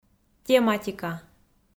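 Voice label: "тематика", Komi, female; audio dict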